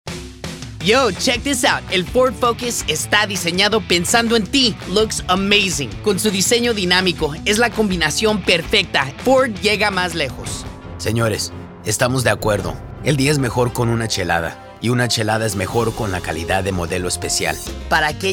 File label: spanish commercial